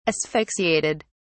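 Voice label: "asphyxiated", English, British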